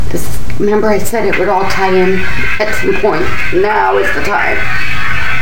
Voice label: Electronic male voice; Athens IL; Bitterroot